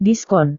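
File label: "diskon", Indonesian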